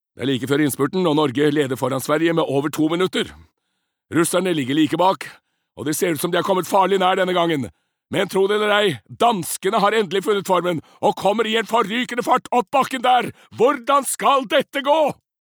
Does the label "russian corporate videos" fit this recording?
No